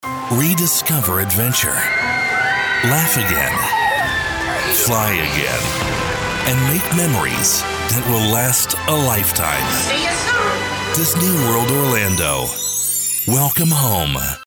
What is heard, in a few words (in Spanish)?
USA Masculino